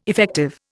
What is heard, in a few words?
"effective", Indian